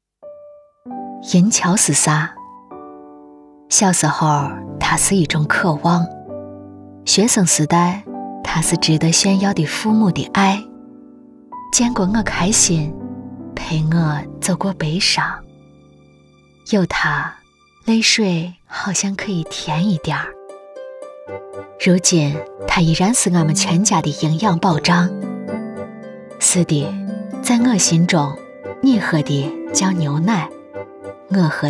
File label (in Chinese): 广告西安话; 银桥乳业